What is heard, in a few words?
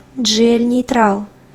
girl